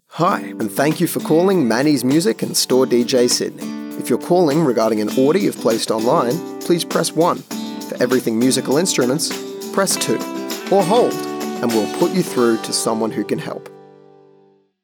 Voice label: On Hold

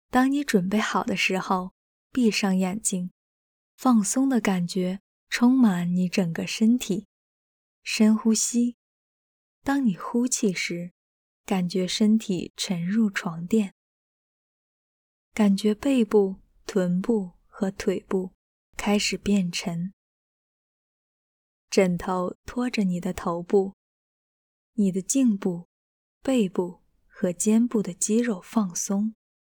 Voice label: Deep Sleep Release Exercise

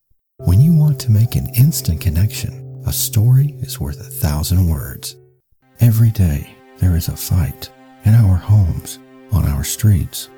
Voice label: Warm Heartfelt

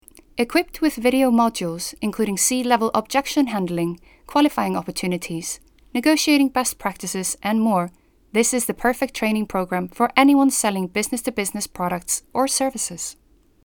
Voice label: eLearning

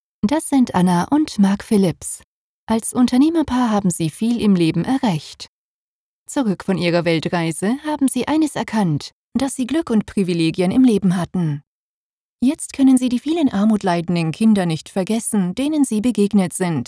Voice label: Explainer video

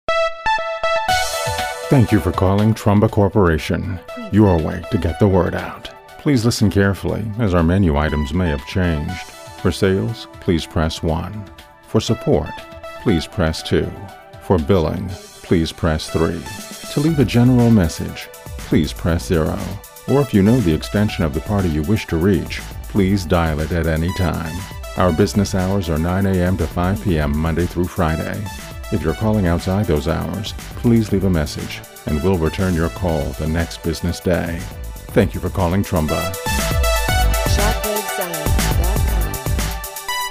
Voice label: IVR

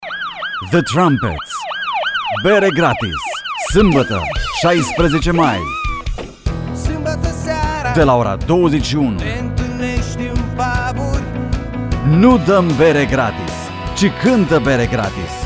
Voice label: Spot; Bere Gratis

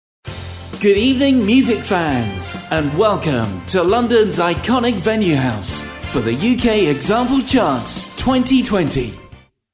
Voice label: vog announcer

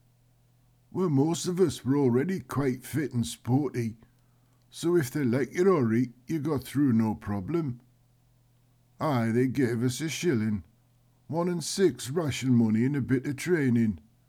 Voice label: Geordie soldier

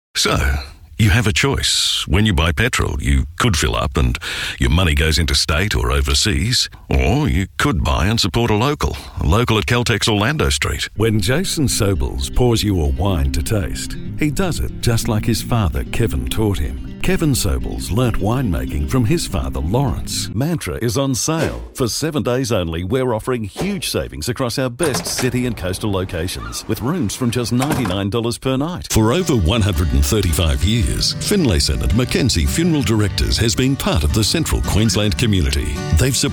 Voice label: Natural

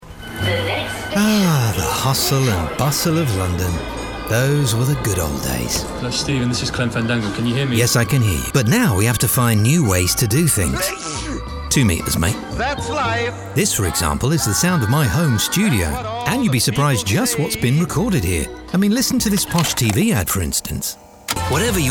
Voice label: Close Read; Thats Life

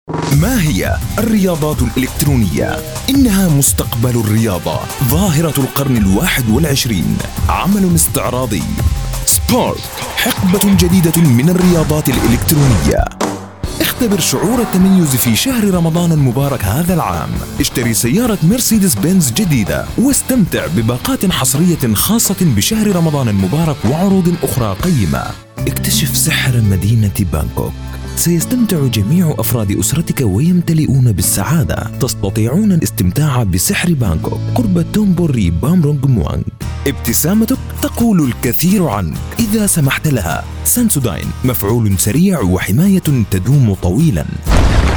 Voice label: Commercial Standard Arabic

